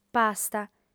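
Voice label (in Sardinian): "pasta", female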